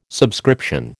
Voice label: "subscription", American